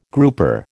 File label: "grouper", American